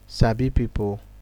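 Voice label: "sabi people", Nigerian Pidgin, male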